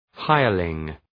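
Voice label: "hireling", English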